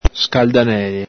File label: "scaldanele", Veneto